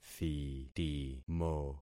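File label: "thitimo", concatenated syllables; Affixing experiment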